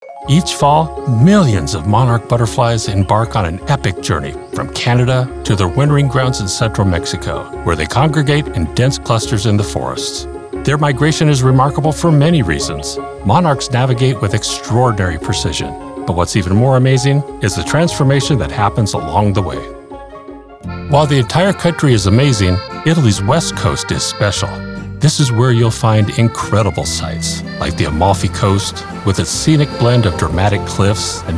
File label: Narative